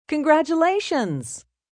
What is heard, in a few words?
Congratulations F, female: 'Congratulations', (human voice speaking); Cheers